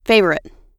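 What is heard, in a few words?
"favorite", English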